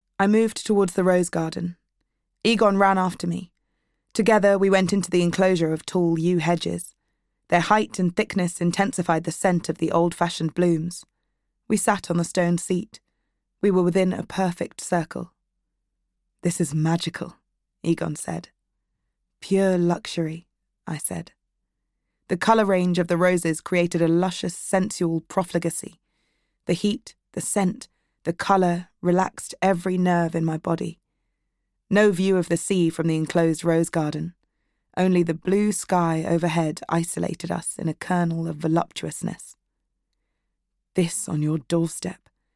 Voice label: Audiobook